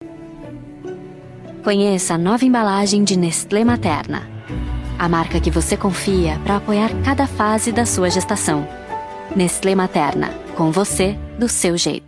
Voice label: portuguese brazilian tv shows